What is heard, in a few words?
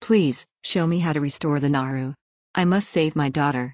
Female Draenei